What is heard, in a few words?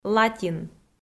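"латин" Komi, female; kpv audio dict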